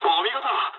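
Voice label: Japanese player killed enemy mood low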